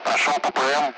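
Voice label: Speech, Russian; Allied Flight; passing waypoint; DCS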